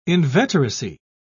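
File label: "inveteracy", British English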